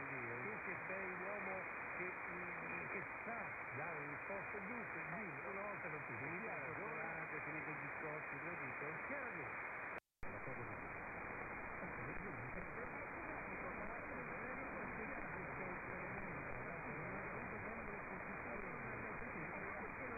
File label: 1575KHz; RAI 1